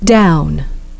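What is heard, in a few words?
"down", English pronunciation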